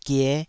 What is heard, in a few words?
"gie5", Taiwanese syllable